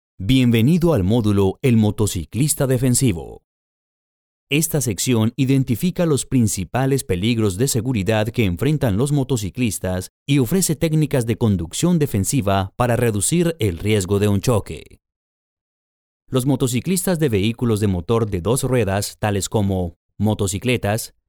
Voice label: spanish colombian e-learning

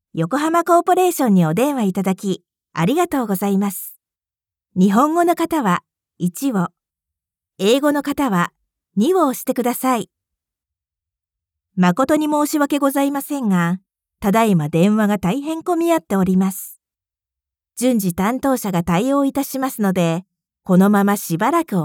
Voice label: Japanese ivr

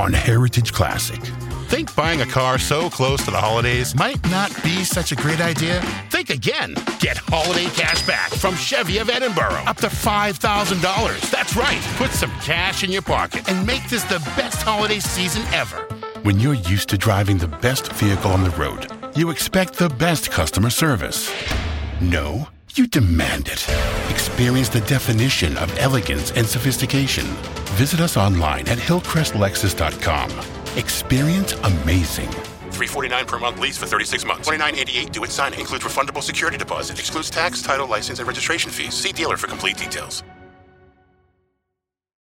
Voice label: english american automotive

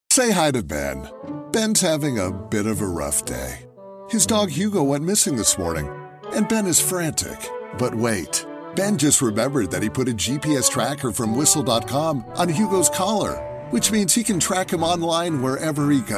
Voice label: english american others

